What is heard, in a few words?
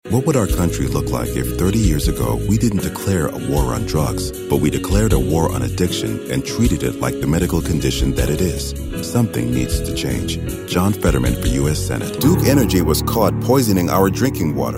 DEM, Political DEMOCRAT Voices English MALE